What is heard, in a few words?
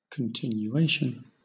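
"continuation", British English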